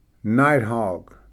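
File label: "night hawk", English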